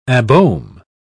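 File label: "abohm", British English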